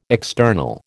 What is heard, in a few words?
"external", American